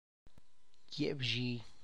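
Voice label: plumeria flower, Tlacochahuaya Zapotec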